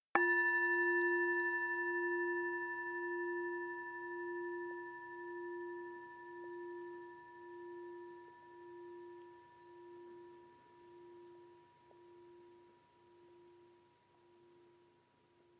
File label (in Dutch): Mildheidmeditatie een weldoener